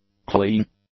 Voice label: "clean", English; adjectives